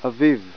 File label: "spring", Hebrew